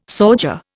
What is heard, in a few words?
"sauger", Indian